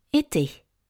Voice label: "été", French; etre